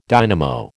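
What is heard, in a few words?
"dynamo", American English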